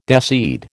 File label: "deseed", American